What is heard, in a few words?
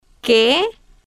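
"que", Spanish, slow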